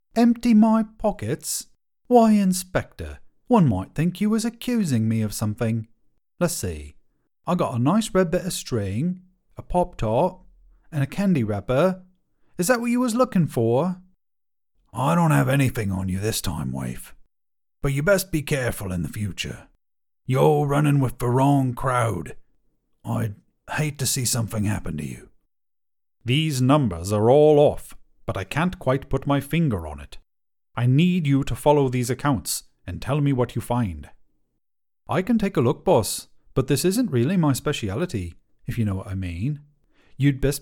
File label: UK Characters